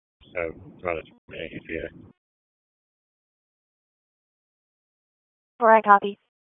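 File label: Police Northeast District Disp, radio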